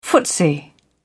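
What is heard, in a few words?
"footsie", en, uk British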